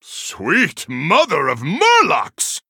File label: Male Human WOW